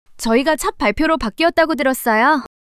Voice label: surprise, monologue